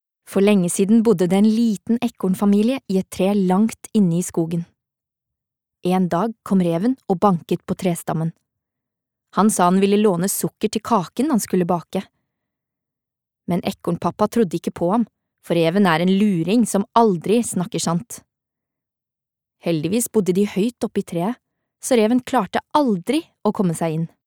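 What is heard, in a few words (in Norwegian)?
forteller, Norwegian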